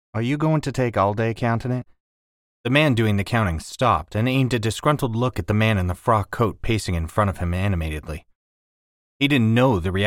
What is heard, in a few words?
Suspense Southern Accent Character